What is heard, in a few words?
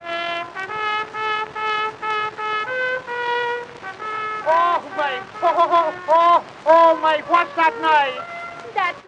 Backyard conversation; edison